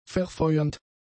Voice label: "verfeuernd", German; adjectives

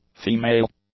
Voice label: "female", English; nouns

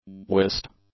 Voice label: "whist", English

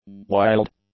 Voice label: "wild", english; adjectives

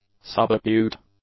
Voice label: "subacute", English; adjectives

